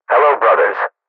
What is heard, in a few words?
quiet; custom monsters; mercs